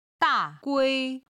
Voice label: "da4 gui1", Chinese

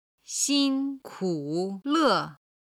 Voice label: "xinkule", Chinese

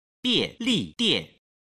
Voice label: "bianlidian", Chinese

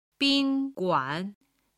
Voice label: "bin1guan3", Chinese

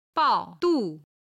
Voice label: "bao4du4", chinese

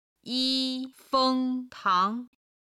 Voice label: "yi1 feng1 tang2", chinese

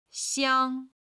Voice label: "xiang1", chinese

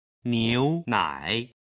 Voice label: "niu nai", Chinese

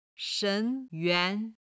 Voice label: "shen2 yuan2", Chinese

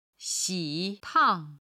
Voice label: "xi3 tang4", Chinese

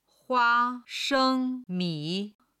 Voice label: "huashengmi", chinese

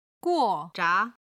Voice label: "guo4zha2", chinese

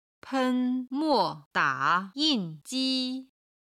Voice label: "pen1 mo4 da3 yin4 ji1", chinese

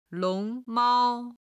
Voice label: "long2 mao1", chinese

